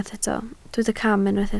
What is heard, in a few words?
"cam", Welsh